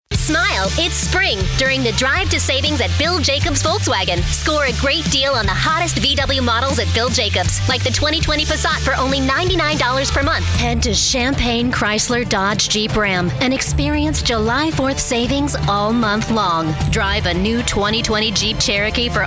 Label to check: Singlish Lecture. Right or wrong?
Wrong